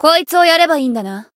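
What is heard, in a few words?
Voice chant, Japanese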